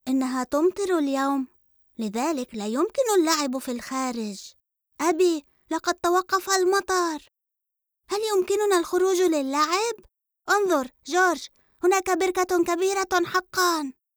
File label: Cartoon